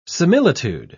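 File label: "similitude", British English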